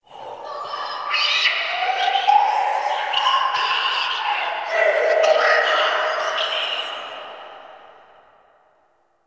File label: Whispers; Inf Hub Lab; Behold Us; Warframe; Ambience; Entrati